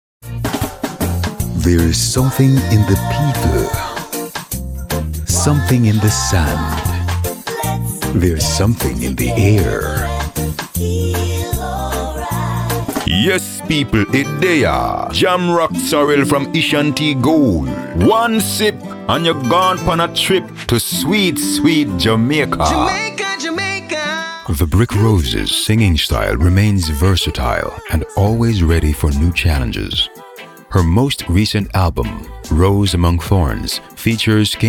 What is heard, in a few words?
Jamaican